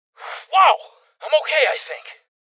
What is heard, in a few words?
Voices Armor Shot Male; rebel cops 2019 gamerip